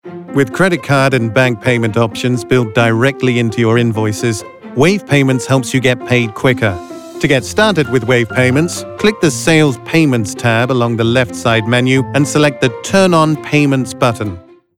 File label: Financial, british elearning voiceover